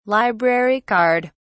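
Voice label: "library card", British English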